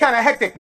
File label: vox spoken kinda hectic chop